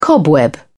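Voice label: "cobweb", British English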